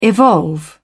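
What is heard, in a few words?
"evolve", British English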